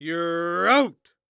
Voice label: Human Voice Male Young Man Baseball Umpire You're Out; Sports